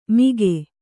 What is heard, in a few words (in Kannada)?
"ಮಿಗೆ", Kannada